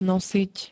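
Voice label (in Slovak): "nosiť", Slovak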